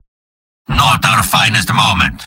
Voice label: spy jeers; mvm